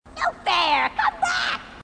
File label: grunt; h2dialogue; grunt crazy